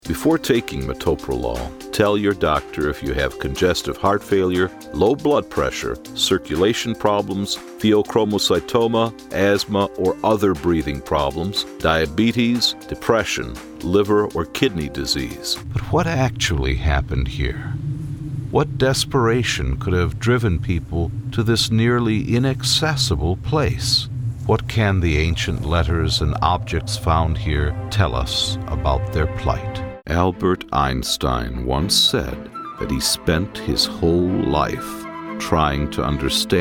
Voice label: Narrative